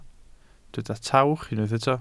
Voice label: "tawch", Welsh

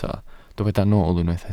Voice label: "nool", Welsh

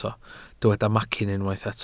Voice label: "macyn", welsh

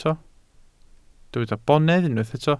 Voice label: "bonedd", welsh

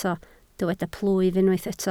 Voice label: "plwyf", Welsh

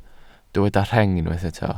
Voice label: "rheng", welsh

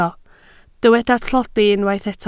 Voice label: "tlodi", Welsh

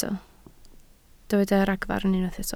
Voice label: "ragfarn", Welsh

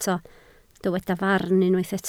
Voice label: "farn", Welsh

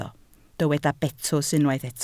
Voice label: "betws", Welsh

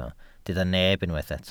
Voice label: "neb", Welsh

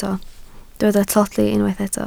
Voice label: "tlodi", Welsh

